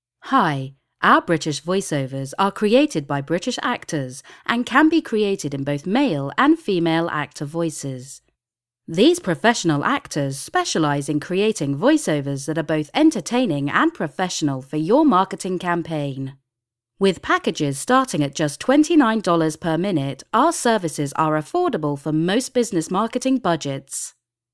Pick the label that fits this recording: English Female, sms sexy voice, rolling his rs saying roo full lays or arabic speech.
English Female